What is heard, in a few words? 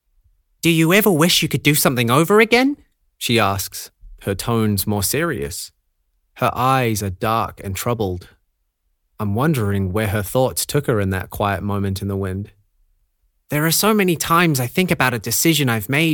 Book Read